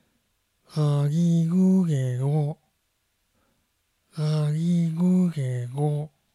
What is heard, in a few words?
gga ggi ggu gge ggo, pronunciation